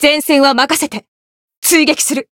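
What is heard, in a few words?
chant, Japanese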